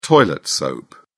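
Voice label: "toilet soap", British English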